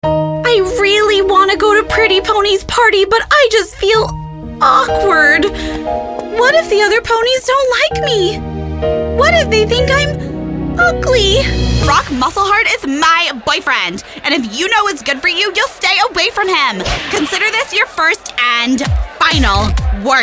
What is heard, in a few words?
animation